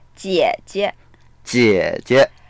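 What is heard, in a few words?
"jie3jie" Mandarin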